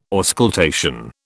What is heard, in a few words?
"auscultation", British